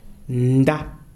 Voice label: "louse", Kalanga